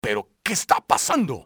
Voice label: whats happening here, spanish soldier; XBOX